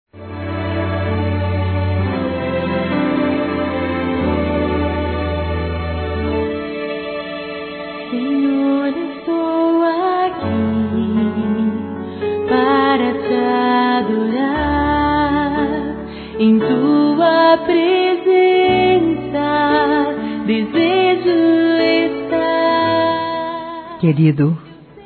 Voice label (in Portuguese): aniv. Esposo f, Telemensagens, Gospel, fem; Telemensagens 2017